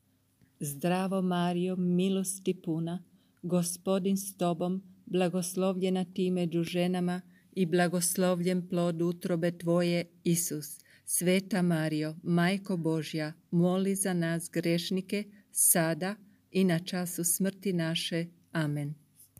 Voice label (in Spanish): Croata Ave Maria normal; ave maria idiomas